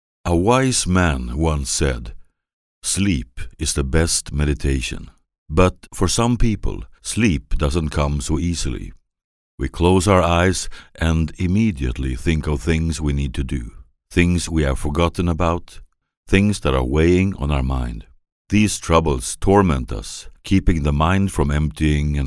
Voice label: Swenglish Sleep